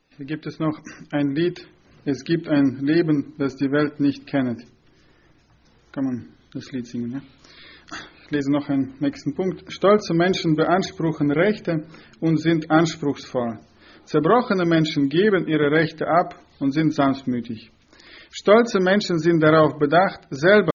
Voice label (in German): ansage; Братское (Германия, 04-25)